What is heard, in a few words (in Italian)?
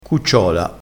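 "cucciola", vocabolario dialettale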